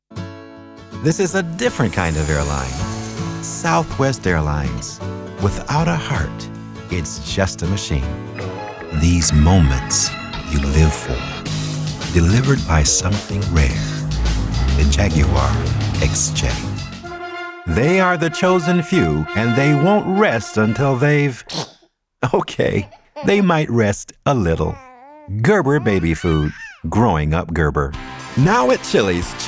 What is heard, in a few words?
commercial